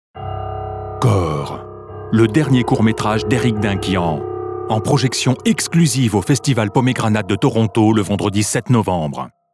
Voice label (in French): voix off pub; kore